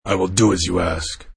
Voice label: Knight, Noble Knight saying; Talking Sound Effects